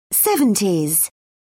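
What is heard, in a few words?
"seventies", British English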